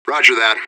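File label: radio bot response positive; fbihrt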